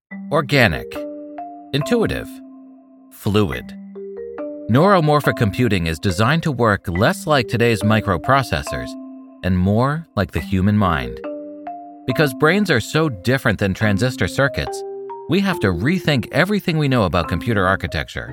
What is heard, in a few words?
Eloquent Conversational; Intel